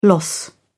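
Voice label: "loss", British English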